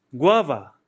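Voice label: "amba", Nepali; grade1; hamro khanekura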